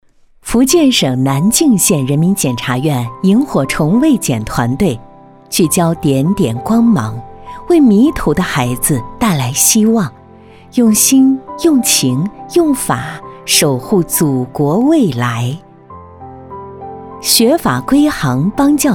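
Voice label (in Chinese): 女 温情大气政法专题, 国语配音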